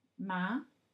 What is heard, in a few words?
second tone, Mandarin